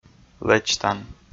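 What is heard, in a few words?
"лэчтан" Komi, male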